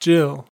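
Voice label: "jill", American English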